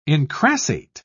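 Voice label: "incrassate", English, British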